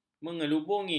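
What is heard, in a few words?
"mengelubungi", Malay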